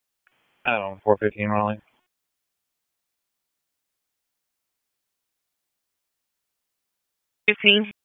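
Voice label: Police Downtown District Dispatch, p25; Raleigh Police Downtown District Disp; wake p25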